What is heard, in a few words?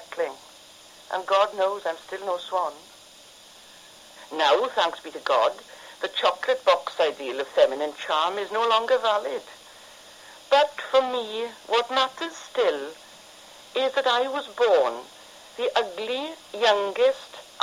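North Wales, English Varieties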